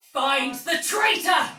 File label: Sight Lost Shout, Soldier Female, Battle; Dialog; Barks; Sinecure